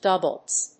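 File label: "doublets", English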